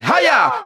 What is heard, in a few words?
"hiya", voice